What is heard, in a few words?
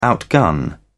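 "outgun", British English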